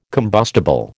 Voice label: "combustible", American